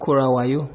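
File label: "korawayo", Nigerian Pidgin, male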